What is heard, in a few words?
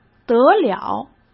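"得了", Mandarin Chinese; chinese-flashcards; hsk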